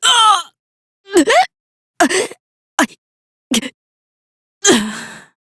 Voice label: Death, female; Warframe; Railjack; Cephalon Suda